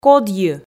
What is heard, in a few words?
"кодйы", Komi